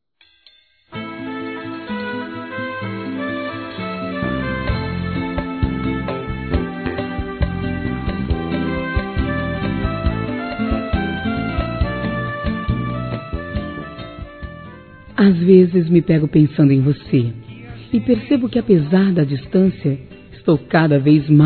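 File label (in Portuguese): Rom. distante, feminino; Telemensagens; Românticas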